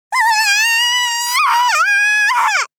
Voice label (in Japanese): うわぁああぁあ～～ 少女×中音域